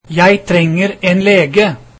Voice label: I need a doctor, norwegian

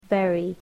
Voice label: "very", English

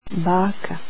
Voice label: grandmother, croatian

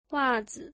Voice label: "socks", mandarin

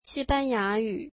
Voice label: Spanish, mandarin

